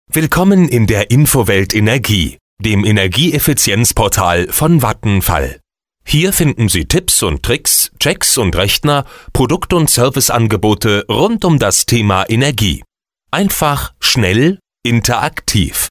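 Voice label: German, male, corporate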